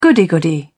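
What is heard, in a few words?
"goody goody", British English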